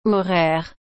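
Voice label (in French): "horaire", French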